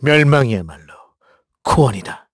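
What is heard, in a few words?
Vox Victory, Korean; KaselB; kingsraid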